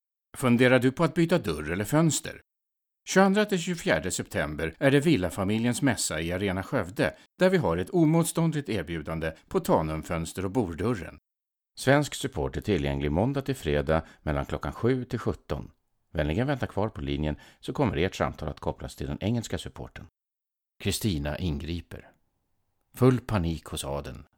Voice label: male swedish, swedish and english with swedish accent